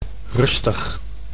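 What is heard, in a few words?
"rustig", Dutch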